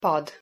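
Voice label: "pad", Hungarian pronunciation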